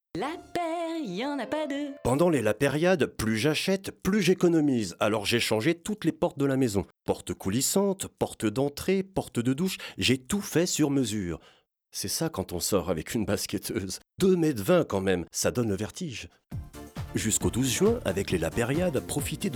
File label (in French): pub radio, Voix off; Lapeyre